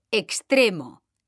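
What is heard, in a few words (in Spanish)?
"extremo", Spanish; mekanta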